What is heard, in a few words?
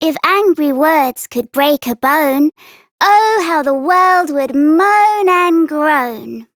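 Child Rhymes Anger Child Female; Duviri; Childrens Rhymes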